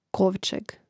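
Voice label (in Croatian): "kovceg" Croatian; Mrežnik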